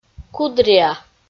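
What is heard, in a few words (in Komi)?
"кудриа", Komi-Zyrian, female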